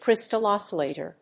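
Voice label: "Crystal Oscillator", English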